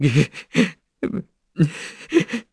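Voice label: Vox Sad, Korean; Zafir; kings raid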